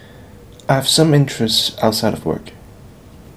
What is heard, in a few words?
interviewee male